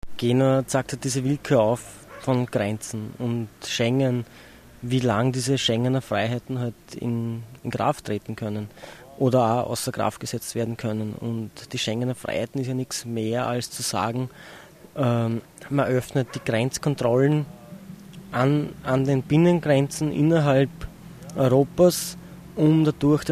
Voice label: interview with an activist; interview with an activist of the publixtheatre caravan, he explains the connections betwe; bordersounds